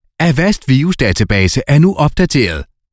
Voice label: danish others